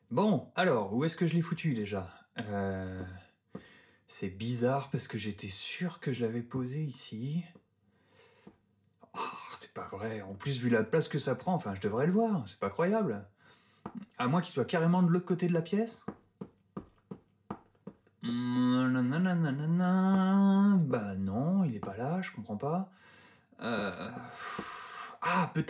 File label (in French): Des voix dans l espace Reverb Insert